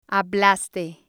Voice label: "hablaste", spanish

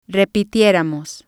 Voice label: "repitieramos", spanish

